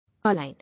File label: "Align", English